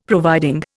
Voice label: "providing", Indian; Dictionary